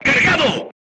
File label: voice message charged, crew tanks spanish